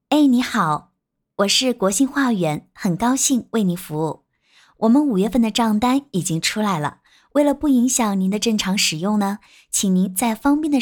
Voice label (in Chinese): 客服类客服类音频